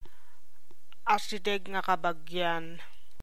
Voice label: Ilocano